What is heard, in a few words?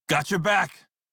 Crew Follow Male en; Dialog; Warframe; Railjack Crew; Red Veil